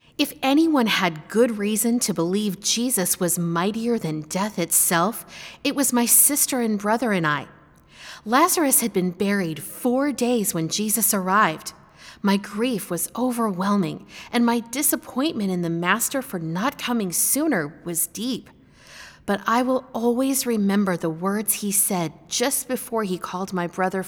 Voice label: Narration; listening cd easter